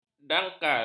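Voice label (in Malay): "dangkal", Malay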